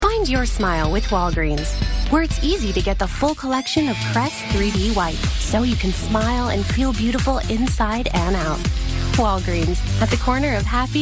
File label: commercial, women